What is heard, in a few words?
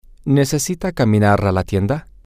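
Spanish, male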